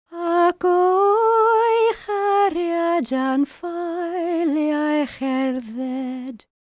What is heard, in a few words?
WELSH F, World Voices